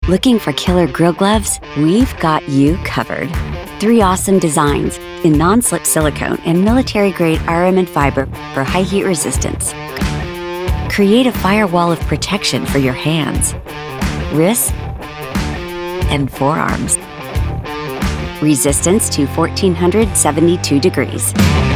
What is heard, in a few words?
english american promos